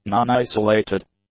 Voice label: "non-isolated", english; adjectives